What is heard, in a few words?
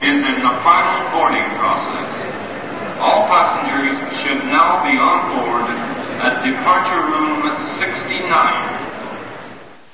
Airport PA; 暴力赛车